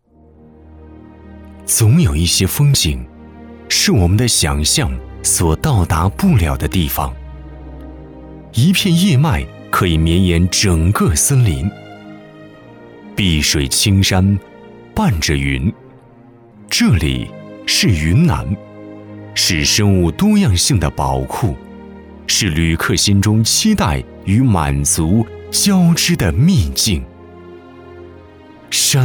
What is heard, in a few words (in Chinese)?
男 解说 深情大气